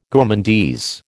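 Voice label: "gourmandise", American English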